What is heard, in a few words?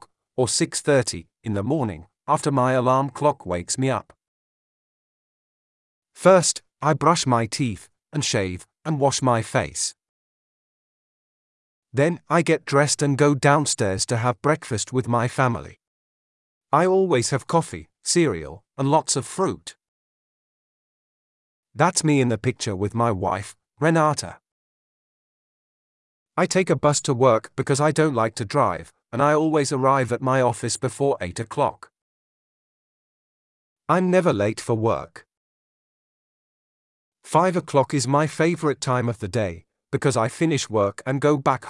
uk daily routine reading